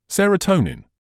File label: "serotonin", English